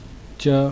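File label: "cha", consonants of the ĐevaNāgarī script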